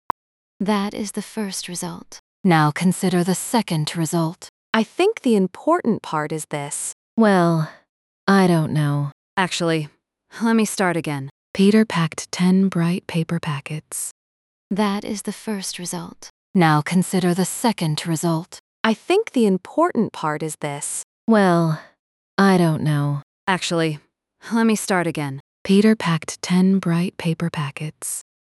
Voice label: speech accent